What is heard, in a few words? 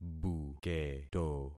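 "buketo", concatenated syllables; Affixing experiment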